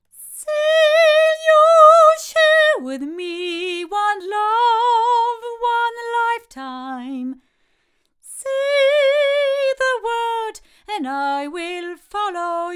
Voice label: Violet Flamingo jr female, microphones

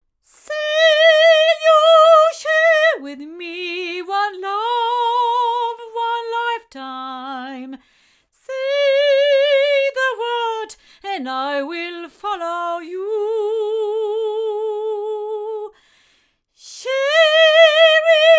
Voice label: Oktava MK319A female

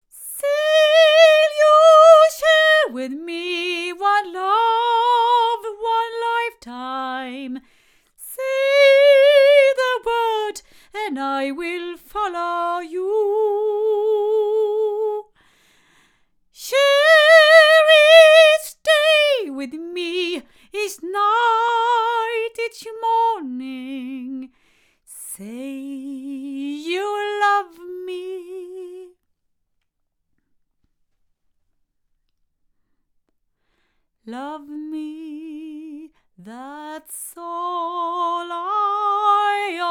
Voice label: MXL 1006BP female

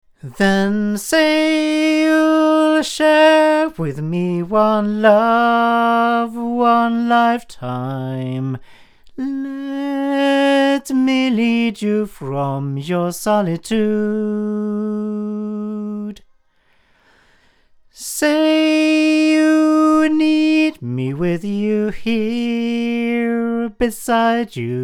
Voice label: Avantone BV-1 8 male

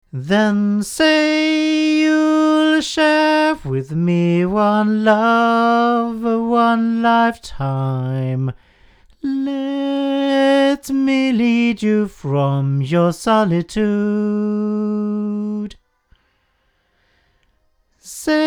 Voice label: MXL R144 male

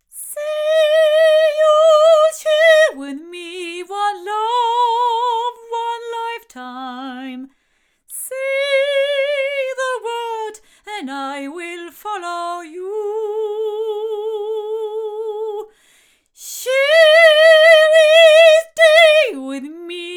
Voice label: ADK A51TL omni female

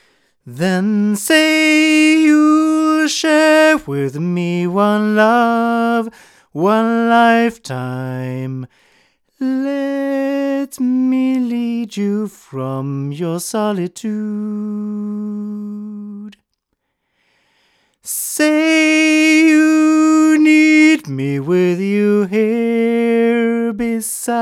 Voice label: Shure Beta58A male

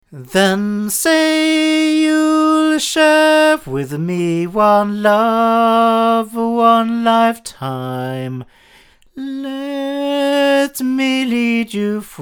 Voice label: ADK TC Mk9 male, microphones

